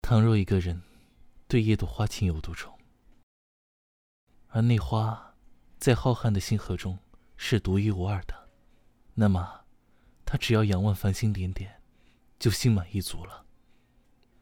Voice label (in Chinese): 华丽的声音